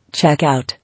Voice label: "Check out", English